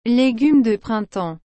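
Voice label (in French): "légumes de printemps", French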